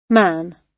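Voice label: "man", British English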